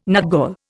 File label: "nutgall", Indian